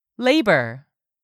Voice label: "labor", English; 3000 words